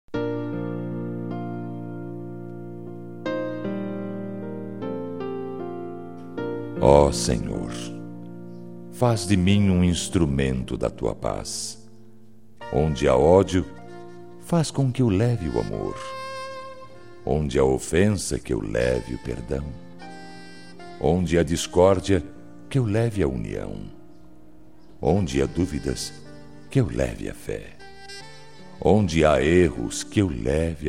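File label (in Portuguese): Oracao de Sao Francisco, Masculino; religiosas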